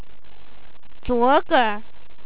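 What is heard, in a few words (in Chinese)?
"zuor ge", Chinese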